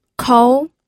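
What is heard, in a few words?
"kou", second tone, pinyin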